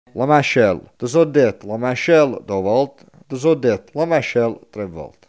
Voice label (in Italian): mascella, coratino